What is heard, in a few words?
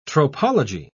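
"tropology", British English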